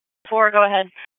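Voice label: Police Southwest District Disp, radio; Raleigh; wake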